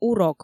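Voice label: "урок" Komi, female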